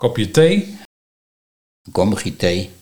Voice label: "Kopje thee - Kommigie thee", Nieuw lekkerlands dialect

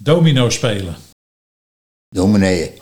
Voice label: "Dominee-en", Nieuw lekkerlands dialect; domino spelen

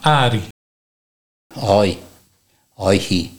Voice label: "Aai of Aaichie", Nieuw lekkerlands dialect

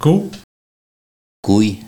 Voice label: "Koei", Nieuw lekkerlands dialect

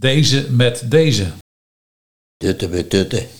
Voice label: Duttemetdutte, Nieuw lekkerlands dialect; Deze met deze

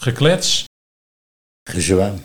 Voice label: "Gezwam", Nieuw lekkerlands dialect; geklets

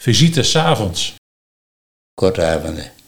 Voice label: "Kortaevunde", Nieuw Lekkerlands dialect; Visite 's avonds

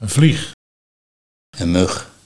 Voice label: "Mug", Nieuw Lekkerlands dialect; Vlieg

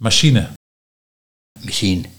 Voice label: "Mesien", Nieuw lekkerlands dialect

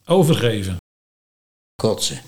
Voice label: Kotse, Nieuw lekkerlands dialect

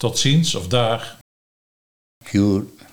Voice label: "Sjoer", dialect Nieuw lekkerlands; totziens / daag

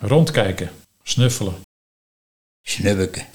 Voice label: "Snubbeke", Nieuw lekkerlands dialect; rondkijken, snuffelen (in een winkel of markt ed)

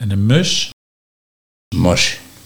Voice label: "Mos", Nieuw Lekkerlands dialect; mus

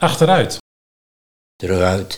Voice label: "Truguit", Nieuw lekkerlands dialect; achteruit

